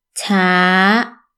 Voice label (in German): langsam; taxi vokabeln